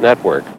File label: LoFi Words, LoFi Vocals